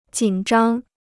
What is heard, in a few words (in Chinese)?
"紧张", Chinese